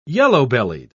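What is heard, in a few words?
"yellow-bellied", British English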